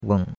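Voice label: "weng", Chinese, third tone; Cours-de-Chinois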